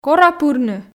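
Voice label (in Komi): кора пырны, Komi, female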